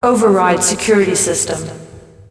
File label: Mars Prison Announcer; Timesplitters 3